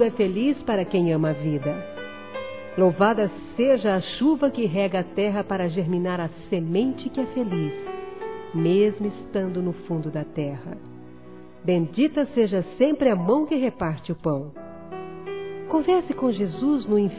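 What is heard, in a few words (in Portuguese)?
PARABÉNS IRMÃ EM CRISTO, Gospel, fem; Telemensagens; Telemensagens 2017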